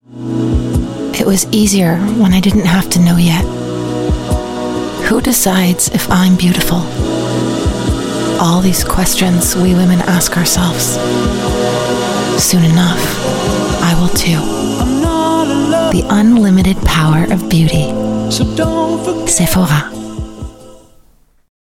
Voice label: US English; Sephora